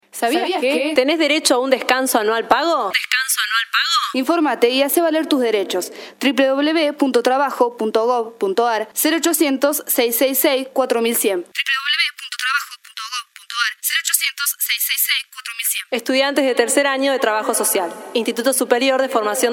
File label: spot; derechos del trabajador